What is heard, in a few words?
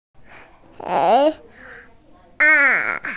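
"hēi àn", Mandarin Chinese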